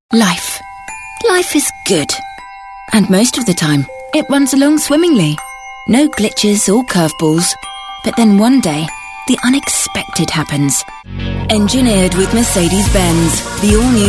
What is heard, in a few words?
commercials